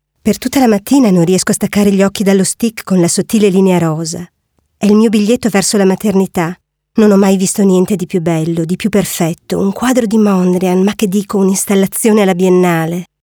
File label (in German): italienisch lesung; sprecher